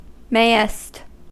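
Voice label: "mayest", American English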